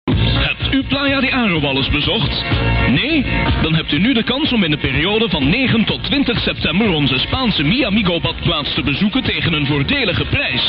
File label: commercial